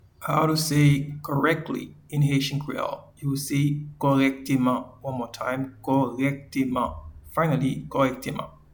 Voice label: Correctly in Haitian Creole Korekteman

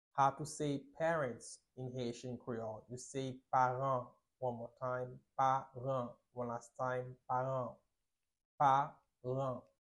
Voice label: Parents in haitian creole Paran pronunciation

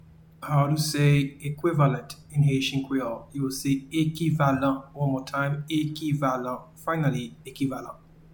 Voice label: Equivalent in Haitian Creole, Ekivalan

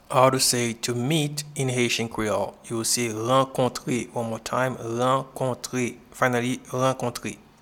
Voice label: to Meet in Haitian Creole Rankontre

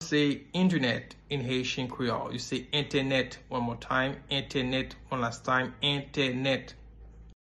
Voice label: Internet in Haitian Creole Entenet pronunciation

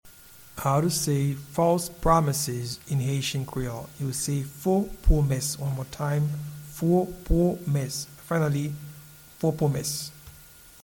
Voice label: False promises in Haitian Creole Fo pwomes

